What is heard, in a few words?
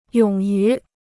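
"勇于", Chinese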